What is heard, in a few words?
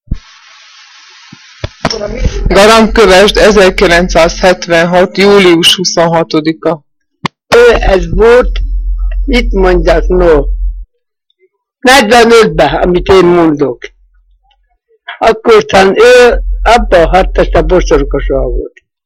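dialect collection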